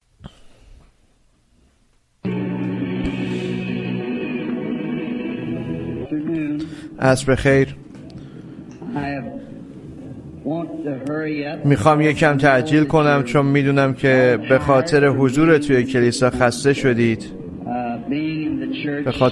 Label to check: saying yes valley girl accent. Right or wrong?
Wrong